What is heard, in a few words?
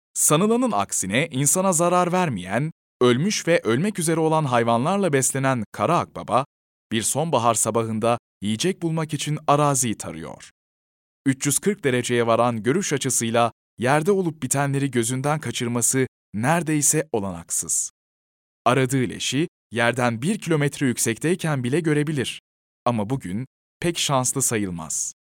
Documentary